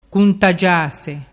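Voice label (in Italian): "cuntagiase", Vernazzese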